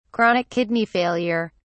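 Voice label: "chronic kidney failure", British English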